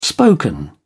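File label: "spoken", British English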